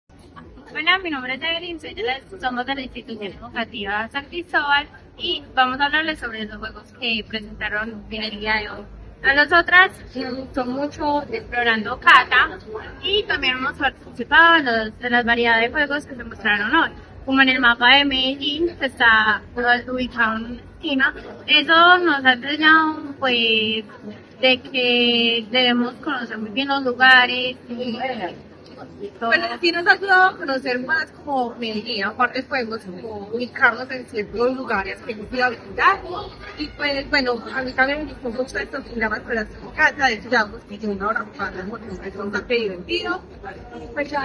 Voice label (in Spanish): Testimonio; Metaventura